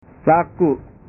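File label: "laku", Indonesian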